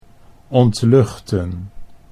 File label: "ontluchten", Dutch